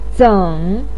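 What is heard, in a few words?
"zeng" third tone, Mandarin Chinese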